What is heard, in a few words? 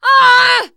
incapacitated injury, teengirl, voice; player; survivor